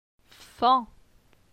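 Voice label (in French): "Fan", French; phonetique; la syllabe an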